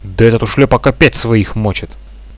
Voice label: friendly fire, Russian; bot radio